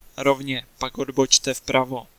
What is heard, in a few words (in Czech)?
Ah Right Turn, Czech, male